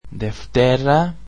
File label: "Monday" greek